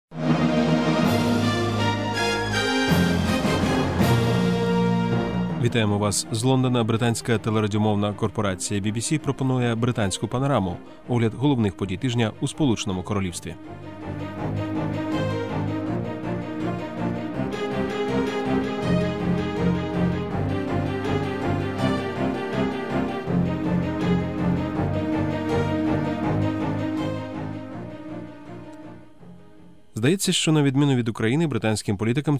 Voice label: male russian and ukrainian ukrainian programme presentation